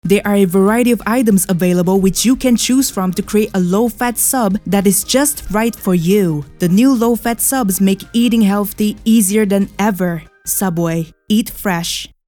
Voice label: vo ads with music